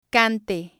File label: "cante", Spanish